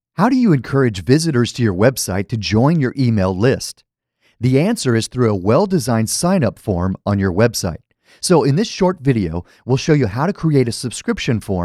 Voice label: Voiceover; MailPoet